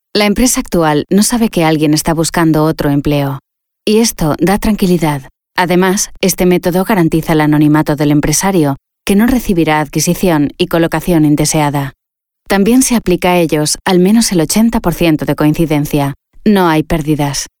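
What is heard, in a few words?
eLearning European Spanish F, female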